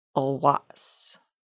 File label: suffix for paddle, Lushootseed